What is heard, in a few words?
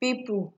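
"people" Nigerian Pidgin, female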